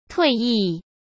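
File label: "退役", Mandarin Chinese; hsk; chinese-flashcards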